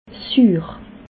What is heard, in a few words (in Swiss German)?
"suur", alsace